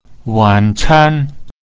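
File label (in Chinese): "wan can", Mandarin Chinese pinyin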